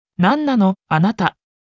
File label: anime